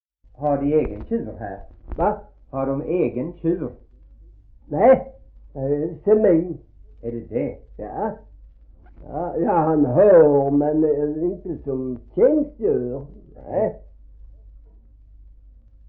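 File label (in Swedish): Gråmanstorp Skåne, dialekter svenska sagor; Folkminnen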